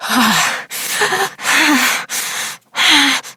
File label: Operator Voice